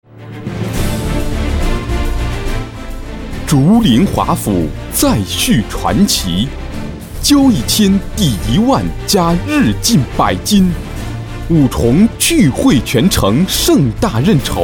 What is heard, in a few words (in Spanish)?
Locutor varón chino